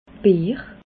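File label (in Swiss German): "beer", Bas Rhin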